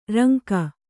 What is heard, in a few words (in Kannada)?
"ರಂಗ", kannada